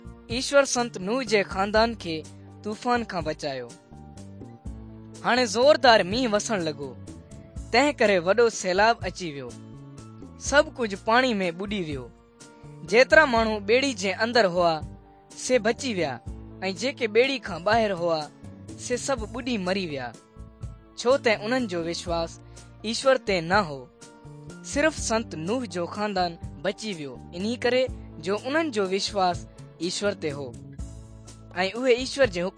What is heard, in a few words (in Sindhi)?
good news sindhi dukslinu, drama